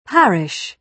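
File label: "parish", British English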